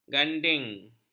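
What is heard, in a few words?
"ganding", Malay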